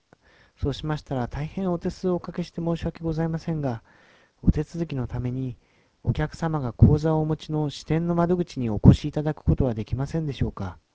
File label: Ja JP narrow; watson stt; bluemix